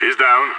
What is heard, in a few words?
enemy down, radio; SAS